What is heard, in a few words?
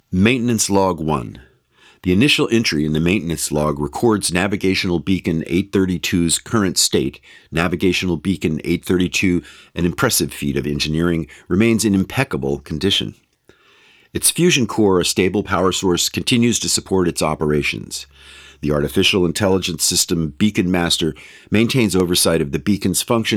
VO FX; Beacon